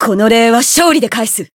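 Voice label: Voice healed, Japanese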